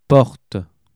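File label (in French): "porte", French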